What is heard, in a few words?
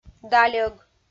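"дальӧг", Komi, female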